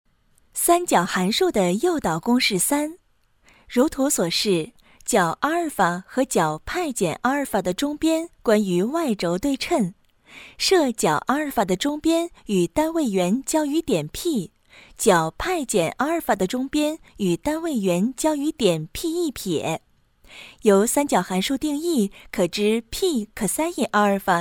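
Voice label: chinese mandarin female narration; voice demos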